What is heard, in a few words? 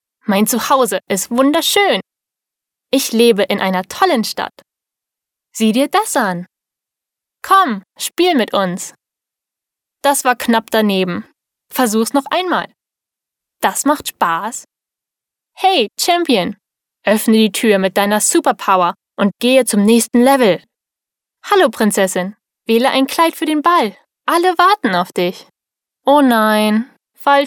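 German female children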